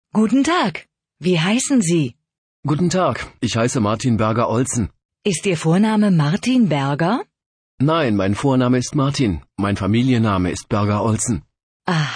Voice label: dialog